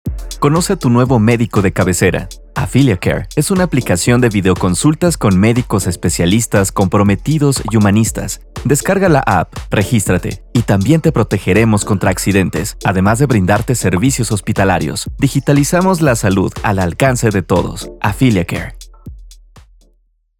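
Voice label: corporativo, Mexican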